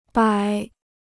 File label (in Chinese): "摆", Chinese